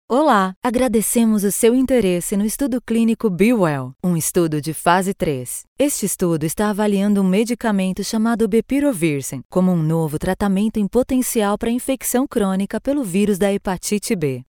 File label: Pharma Brazilian Portuguese OFF